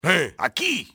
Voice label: over here, enemy spanish soldier; XBOX